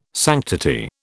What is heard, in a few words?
"sanctity", British